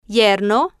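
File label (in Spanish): "yerno", Spanish, female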